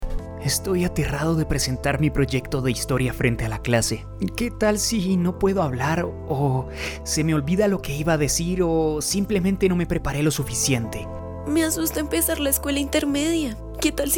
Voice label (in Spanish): locución doblaje